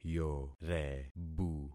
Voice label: "yorebu", concat syllables; Affixing experiment